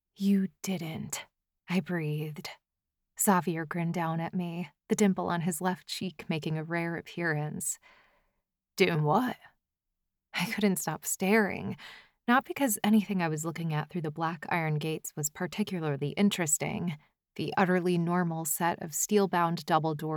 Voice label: Multicultural Romance 1st Person F M Dialogue South London Accent M